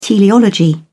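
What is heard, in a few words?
"teleology", British English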